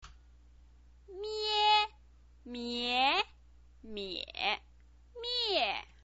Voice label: "mie", chinese pinyin